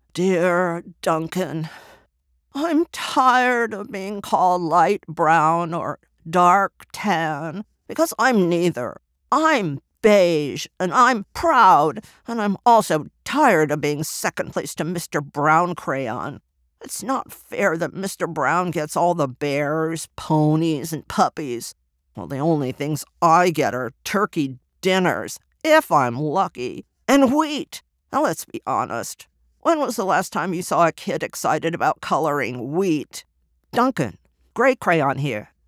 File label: Character; Grey Crayon